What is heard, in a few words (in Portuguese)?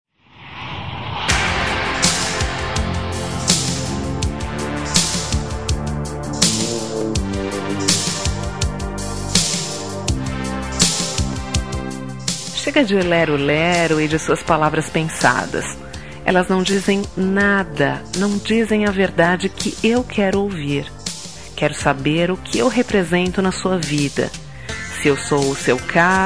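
Rom ultimato, feminino; Telemensagens; Românticas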